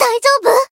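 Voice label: Voice heal, Japanese; ciel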